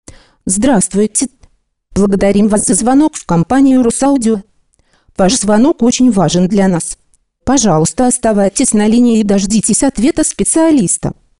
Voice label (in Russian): robot